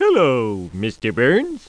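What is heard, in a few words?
"Hello Mr", spoken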